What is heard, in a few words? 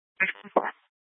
Sheriffs Office, scanner